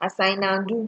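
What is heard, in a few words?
"asignado", Nigerian Pidgin, female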